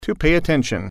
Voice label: "pay attention", English